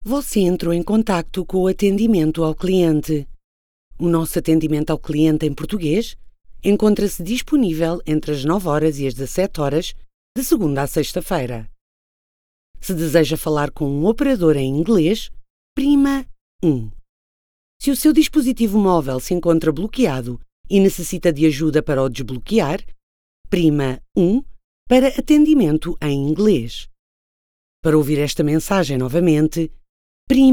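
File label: PT IVR, Portuguese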